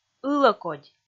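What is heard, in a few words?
"ылӧкодь", Komi, female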